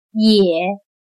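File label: "也" Chinese, yě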